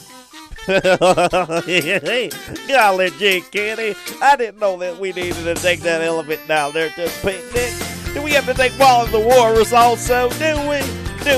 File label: Cartoon Silly